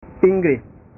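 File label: "inggris", Indonesian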